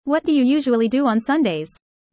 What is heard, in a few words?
fast